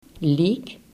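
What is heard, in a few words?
"leek", Dutch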